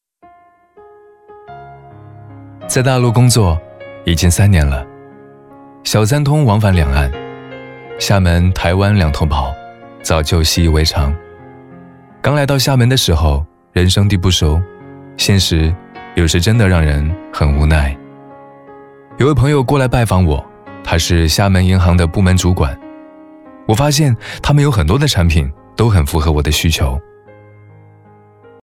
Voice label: 高端男自然亲切台湾腔; 心系两岸

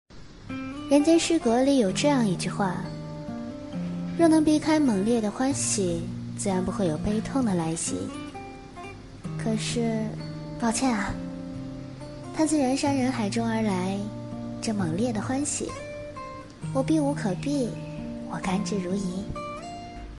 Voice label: 情感

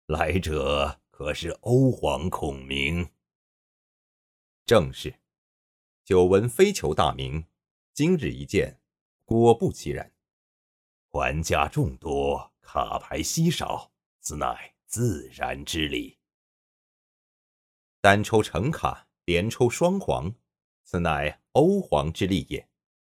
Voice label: 角色类模仿; 诸葛亮王朗

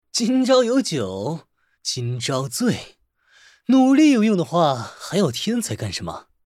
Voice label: 角色 男; 李白

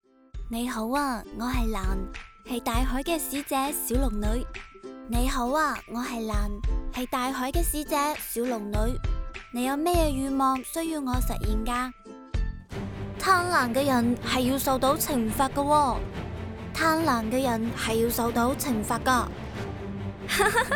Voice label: 粤语女声游戏角色; 小龙女珑

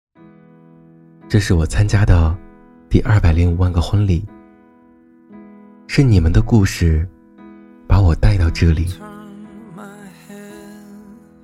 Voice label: 广告

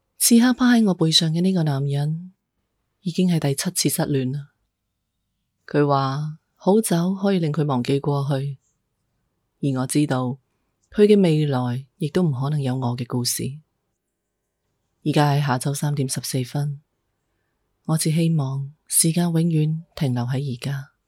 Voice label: 情感广告粤语

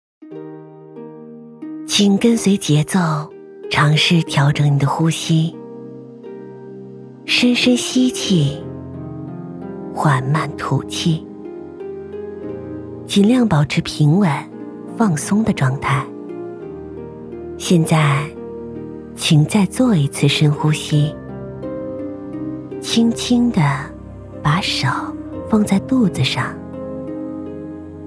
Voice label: 冥想疗愈

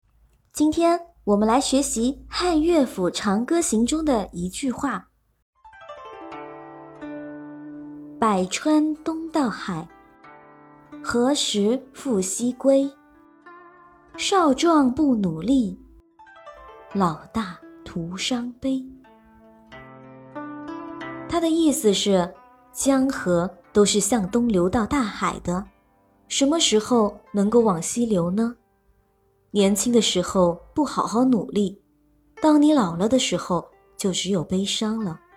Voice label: 儿童读物女; 泡浮泡泡

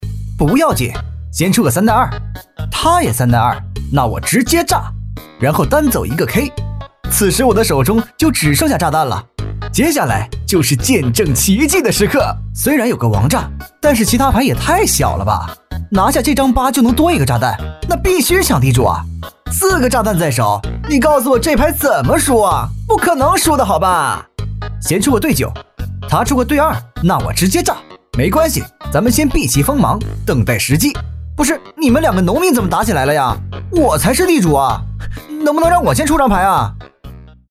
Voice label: 信息流游戏棋牌类

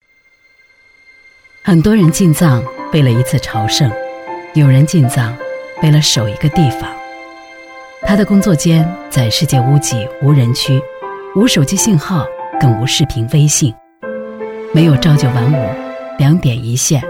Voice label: 广告旁白

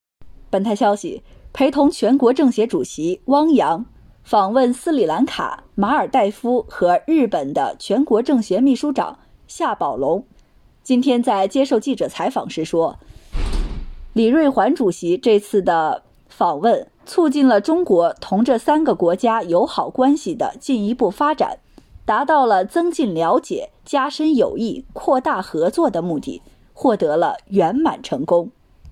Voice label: 新闻

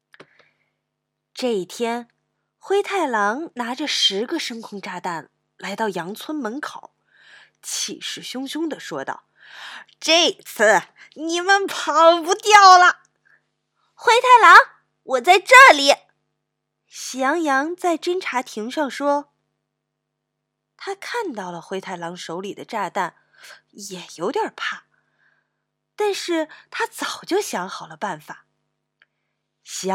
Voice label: 卡通故事

